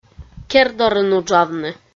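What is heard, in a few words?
"кер дорын уджавны", Komi, female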